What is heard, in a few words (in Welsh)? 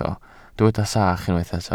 "sach", Welsh